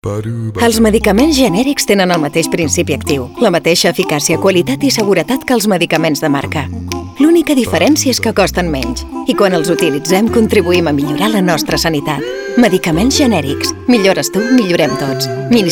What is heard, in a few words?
catalan; 20 segundos; medicamentos Genericos EFG